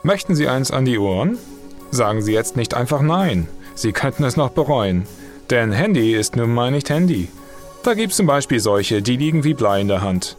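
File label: German, male, explainer; voice over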